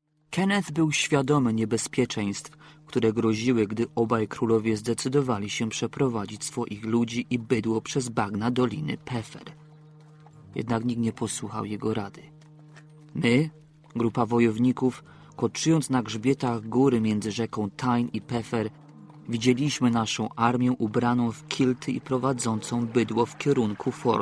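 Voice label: Polish, corporate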